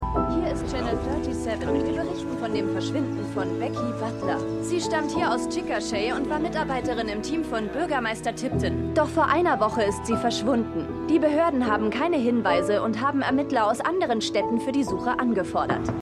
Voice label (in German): Reporterin